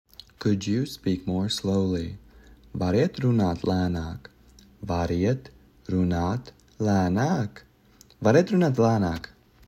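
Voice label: "Could you speak more slowly", Latvian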